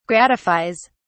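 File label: "gratifies", British English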